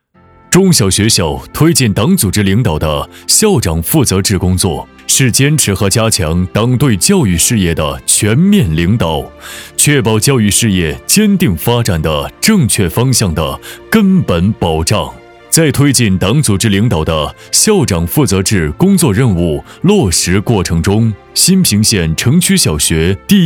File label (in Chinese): 专题 沉稳大气; 新平县城区小学